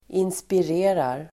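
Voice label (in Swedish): "inspirerar", Swedish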